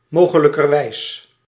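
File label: "mogelijkerwijs", Dutch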